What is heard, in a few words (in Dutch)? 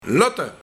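"Lotte", Luik, Laten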